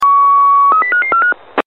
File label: Police Radio